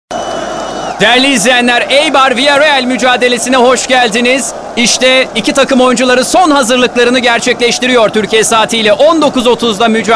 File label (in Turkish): anlatici; eibar villarreal